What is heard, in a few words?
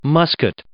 "musket", English